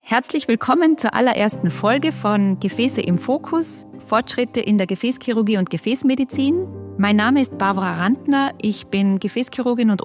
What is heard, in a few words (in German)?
trailer; gefasse im fokus fortschritte in der gefasschirurgie und gefassmedizin